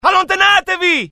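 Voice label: fear grenade, IT; mohaa; dialogue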